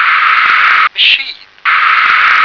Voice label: "machine", English